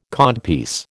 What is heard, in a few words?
"codpiece", American